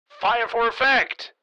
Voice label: Military radio voice command; fire for effect